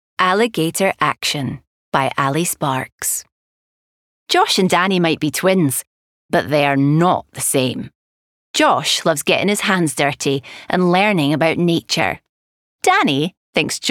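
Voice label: AUDIOBOOK, Female; ALLIGATOR ACTION AUDIOBOOK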